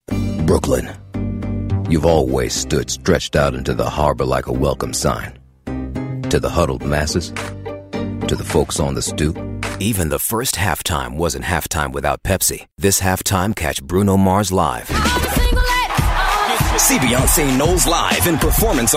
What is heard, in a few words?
Voiceovers